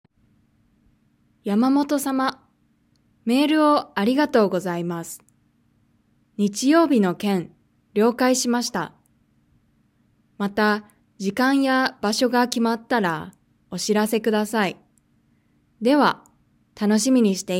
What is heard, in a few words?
Reading natural; Nakama; OJAD